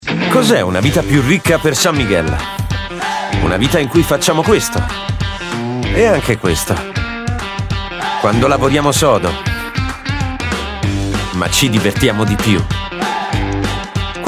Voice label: italian commercial

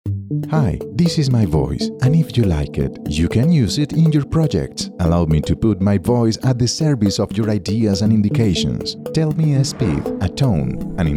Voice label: English commercial

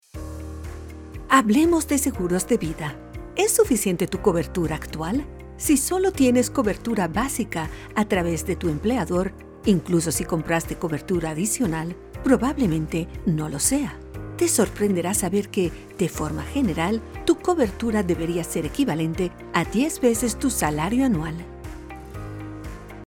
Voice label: spanish latin american explainer videos

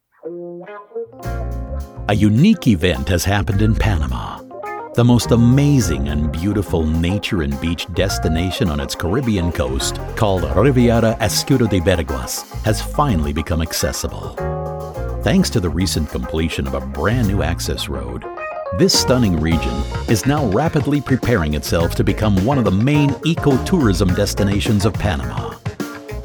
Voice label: english american others

